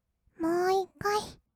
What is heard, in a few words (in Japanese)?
"もう1回", kawaii voice; 1200 kawaii voice!!; 色々なワンショット